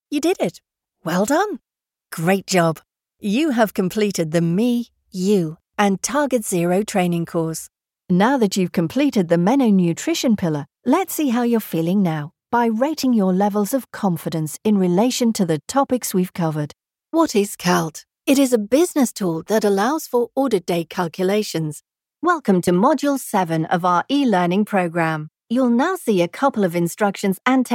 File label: elearning